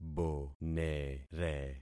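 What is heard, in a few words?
"bonere", concatenated syllables; Affixing experiment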